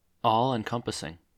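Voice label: "all-encompassing", American English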